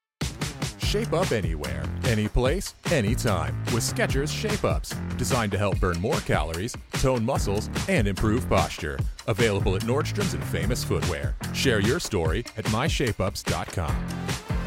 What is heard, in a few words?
Commercial Read